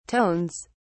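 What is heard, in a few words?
"tones", British English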